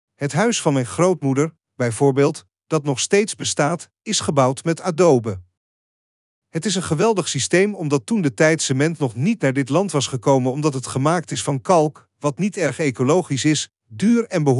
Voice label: Dutch